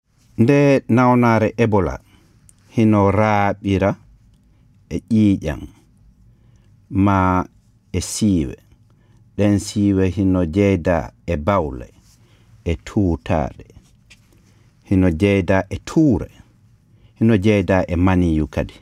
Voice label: Fullah PSA Message, radio spots; ebola; vhf